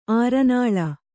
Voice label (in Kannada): "ಅರನೆಲೆ", Kannada